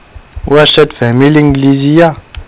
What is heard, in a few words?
english m